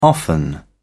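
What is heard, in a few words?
"often", British English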